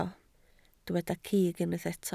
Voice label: "cig", Welsh

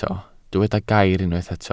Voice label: "gair", Welsh

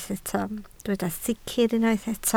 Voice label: "sicr", Welsh

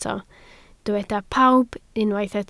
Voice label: "pawb", Welsh

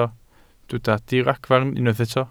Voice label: "diragfarn" Welsh

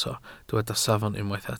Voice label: "safon", Welsh

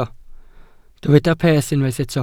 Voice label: "peth" Welsh

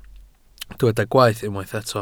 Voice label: "gwaeth", Welsh

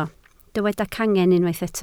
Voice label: "cangen", Welsh

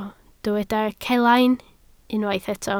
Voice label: "celain", Welsh

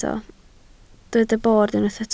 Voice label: "bord", Welsh

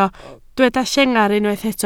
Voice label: "llengar", Welsh

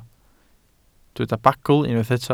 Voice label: "bagl", Welsh

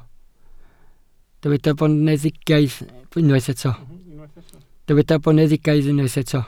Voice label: "boneddigaidd", Welsh

